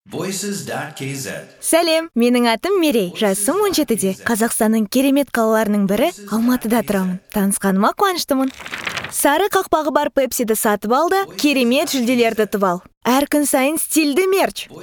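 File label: Kazakh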